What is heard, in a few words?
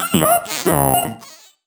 Vocals